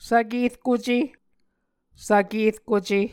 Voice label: "svkerkuce", Muscogee